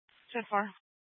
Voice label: Mesa PD Dispatch; Fiesta

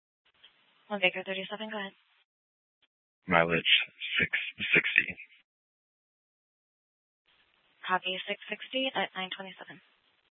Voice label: Mesa PD Central Dispatch, Scanner

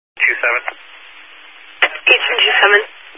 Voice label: Highway Patrol, scanner

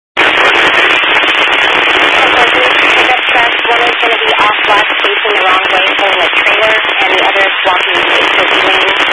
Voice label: Highway Patrol, Phoenix Metro East Area, scanner

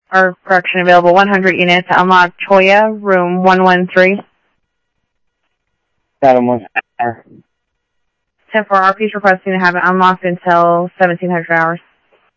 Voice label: Security, scanner audio; Thompson Peak Hi; G-S Security

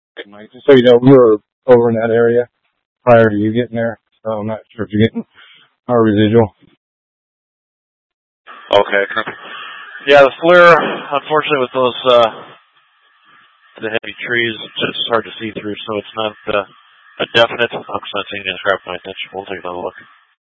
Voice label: Mutual Aid-Intersystem Interop B, scanner; AMBER Alert

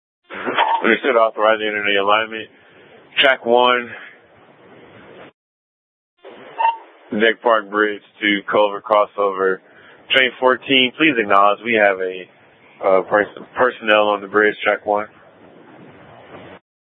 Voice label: Public Transit System Light Rail Ops, scanner

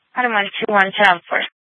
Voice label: Sheriff, scanner; Maricopa County (P25); Thompson Peak; Shrf Mnt (Parks)

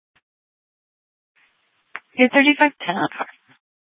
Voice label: Sheriff, scanner P25

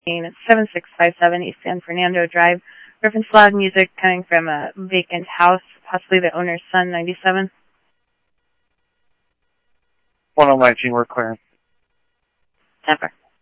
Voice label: PD Police, scanner audio

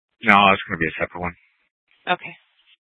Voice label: Mesa PD Central Dispatch, scanner; Mesa Simulcast

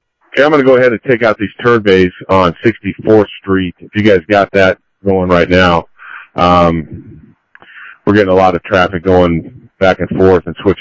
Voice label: Mutual Aid, scanner audio; ThompsonPeakHigh; OCEAN 6

